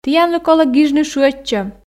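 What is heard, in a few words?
"тіянлы колӧ гижны шыӧдчӧм", Komi, female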